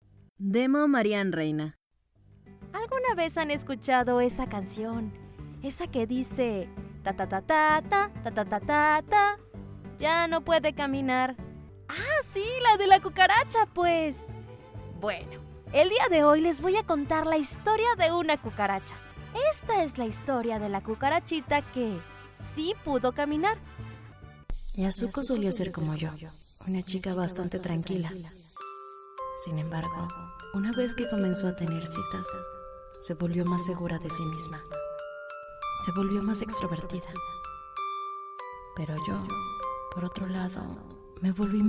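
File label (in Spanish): doblaje, Mexico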